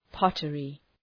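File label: "pottery", English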